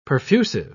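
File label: "perfusive", British English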